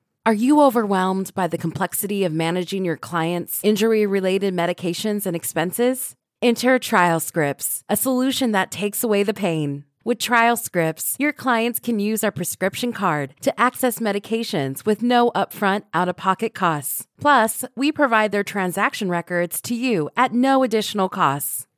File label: explainer video informative